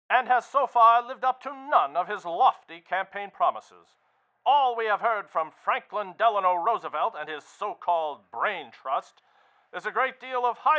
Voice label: newscaster filtered; sound effects and sound reinforcement